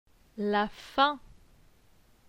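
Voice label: "La faim", French; phonetique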